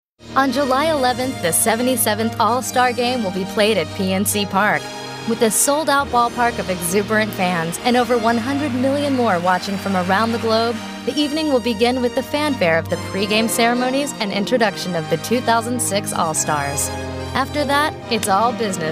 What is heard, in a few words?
Sports Promo